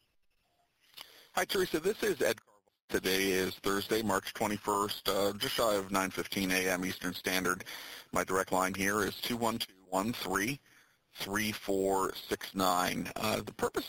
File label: Bad Voicemail